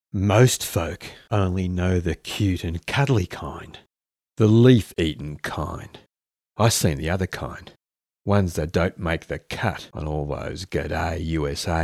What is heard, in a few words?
english australian others